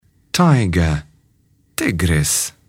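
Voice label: "tiger", English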